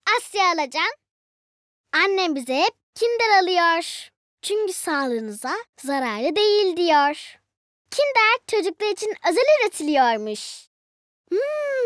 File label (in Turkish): Reklam